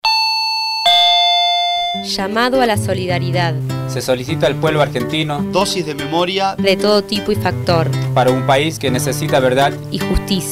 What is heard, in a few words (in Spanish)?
spot golpe